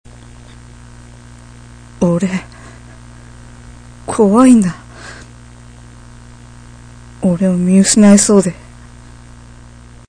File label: terrible m